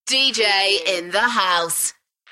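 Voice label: UK female, DJ vocals; Dj in the house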